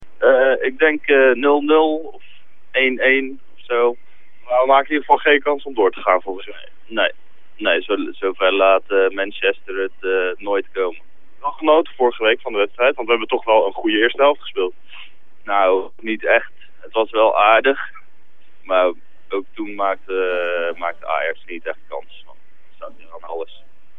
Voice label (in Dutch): Vox Pop; Pont Ajax Manchester